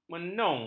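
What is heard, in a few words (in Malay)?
"menung", Malay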